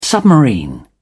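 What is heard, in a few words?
"submarine", British English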